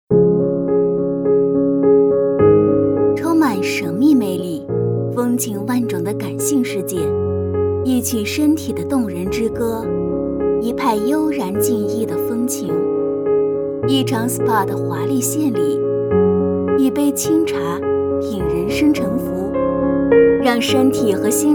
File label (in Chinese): 品质广告